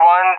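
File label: "1", English speech; Digits; DCS; Allied Flight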